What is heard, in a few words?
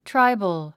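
"tribal", 英単語 発音; tráibəl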